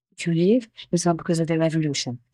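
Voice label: Voice Acting es, female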